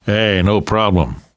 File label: you are welcome, namvet, survivor voice; Left 4 Dead 2; left4dead2 dlc2